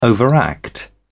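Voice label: "overact", British English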